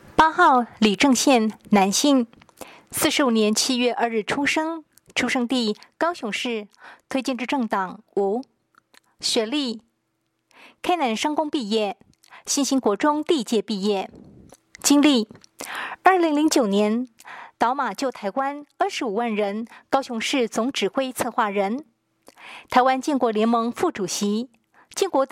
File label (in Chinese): 國語, 有聲選舉公報; 高雄市; 高雄市市長議員有聲選舉公報; 第九選區